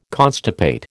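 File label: "constipate", American English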